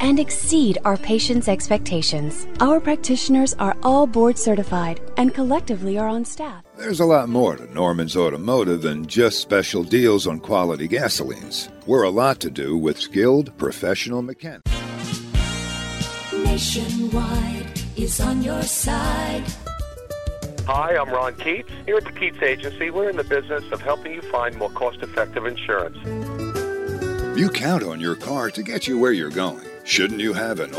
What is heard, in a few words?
On Hold; Phone Programs